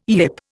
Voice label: "yip", Indian